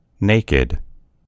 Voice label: "naked", en US; gcompris

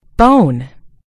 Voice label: "bone", en US; gcompris

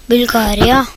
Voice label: bulgaria, nb; gcompris; geography

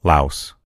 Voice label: "laos", en US; gcompris; geography

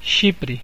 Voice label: "cyprus", pt BR; gcompris; geography

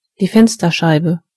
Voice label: "window glass", de; gcompris

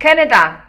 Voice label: "canada", pt; gcompris; geography

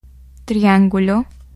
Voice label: triangle, es; gcompris

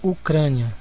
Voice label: "ukraine", pt_BR; gcompris; geography

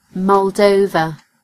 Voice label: "moldova", en GB; gcompris

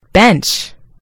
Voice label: "bench", en US; gcompris

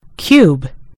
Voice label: "cube", en GB; gcompris

